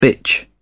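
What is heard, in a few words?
"bitch", British English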